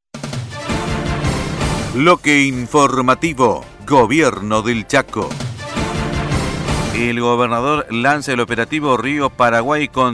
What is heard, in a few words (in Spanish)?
bloque informativo